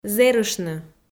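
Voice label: "зэрыштны", Komi, female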